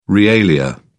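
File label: "realia", British English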